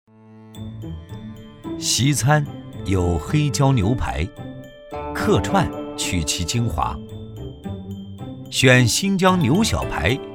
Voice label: 男 纪录片 美食 黑椒牛排

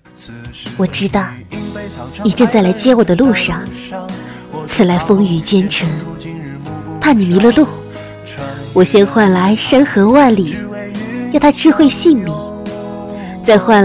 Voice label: 女 古风少女

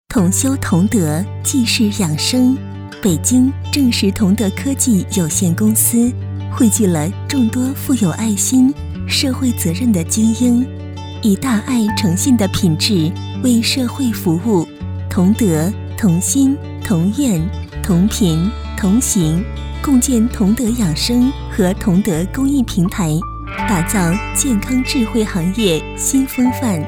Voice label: 女, 彩铃

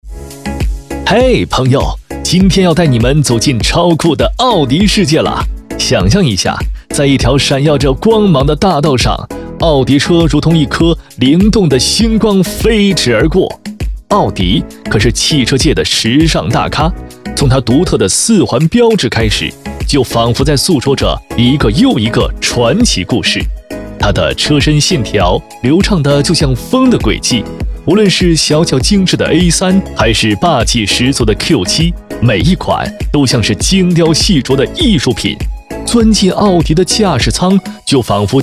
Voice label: 男 MG动画 汽车 年轻动感; 奥迪汽车

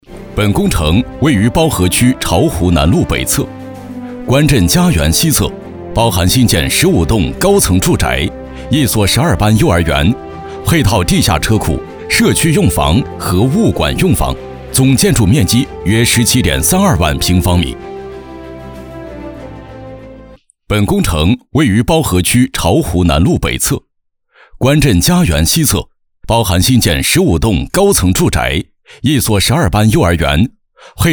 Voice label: 男 工程汇报; 龙湖苑

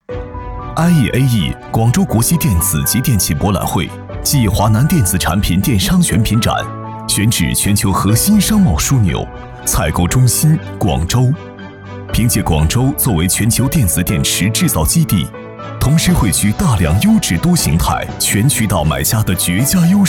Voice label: 男, 科技感, 电子展; IEAE广州电子展

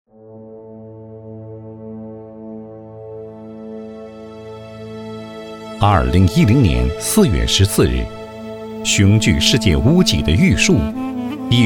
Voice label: 男,灾情专题

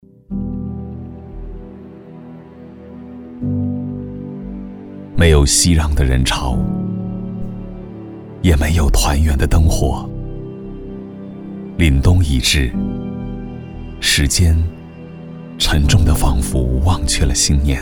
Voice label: 男 专题 疫情配音; 我是党员我先上

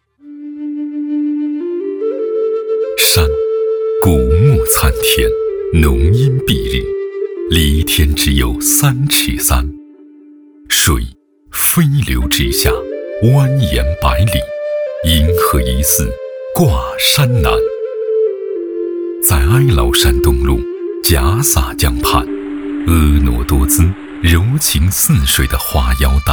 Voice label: 男 纪录 人文 片 民族讲述 新平彝族

